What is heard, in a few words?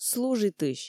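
"служитысь", Komi, female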